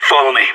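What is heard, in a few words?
radio follow me, voice; agents; sas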